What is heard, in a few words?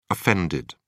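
"offended", British English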